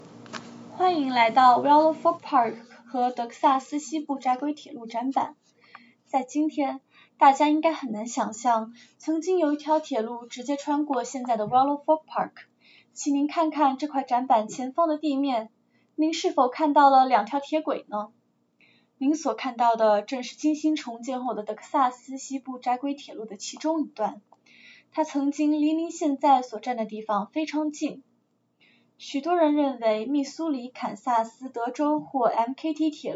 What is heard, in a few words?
railroad mandarin; Willow Fork Park; railroad exhibit; 普通話